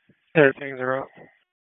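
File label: FIRE Operations, p25; FIRE OPS 1; wake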